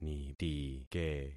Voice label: "nitike", concat syllables; Affixing experiment